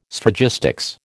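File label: "Sphragistics", American English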